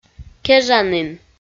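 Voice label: "кежанін", Komi, female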